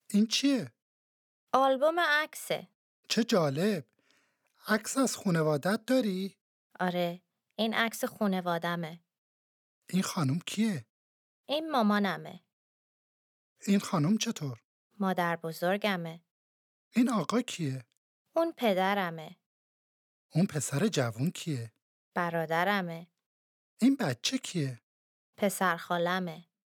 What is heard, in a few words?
Dialogue lesson Farsi